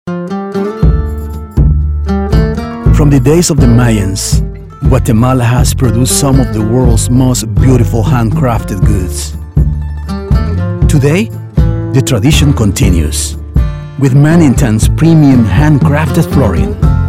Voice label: Documentary Brand & Corporate Narration